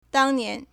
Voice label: "dang1 nian2", Chinese